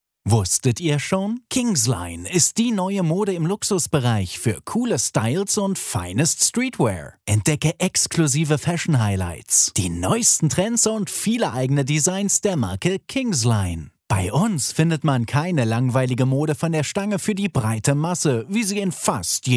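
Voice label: German male; lektoring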